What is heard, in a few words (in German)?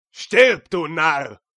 Narr